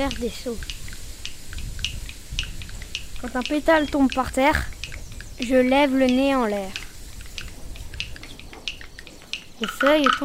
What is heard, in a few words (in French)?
slam nature